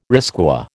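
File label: "risqué", American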